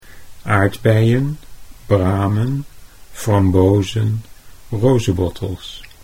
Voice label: "aardbeien", Dutch

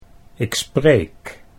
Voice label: ik spreek, Dutch

